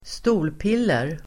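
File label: "stolpiller", Swedish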